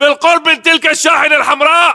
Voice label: landmark near vanred, localized english battlechatter